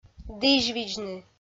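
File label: "дізьвидзны", Komi, female; kpv audio dict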